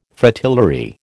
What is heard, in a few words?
"Fritillary", American